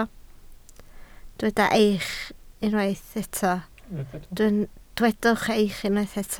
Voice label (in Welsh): "eich" Welsh